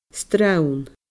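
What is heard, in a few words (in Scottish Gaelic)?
"srann", Scottish Gaelic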